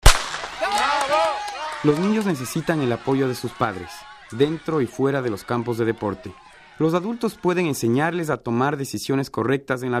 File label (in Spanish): Spanish